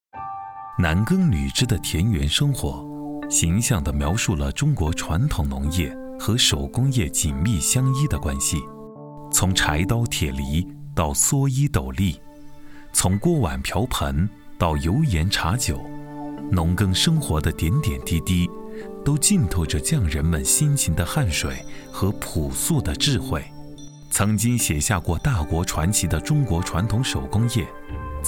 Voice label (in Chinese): 男 纪录片 农耕工业